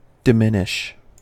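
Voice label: "diminish", American English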